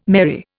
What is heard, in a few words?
"merry", Indian